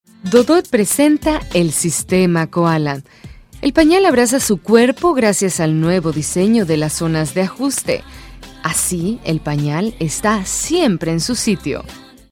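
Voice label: Latin American Spanish Commercial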